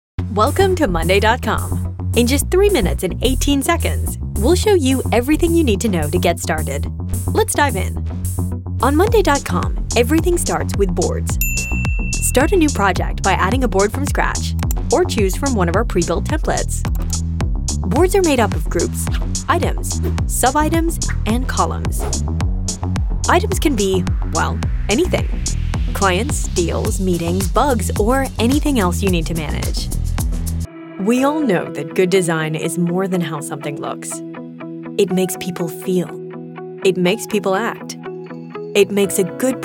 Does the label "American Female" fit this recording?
Yes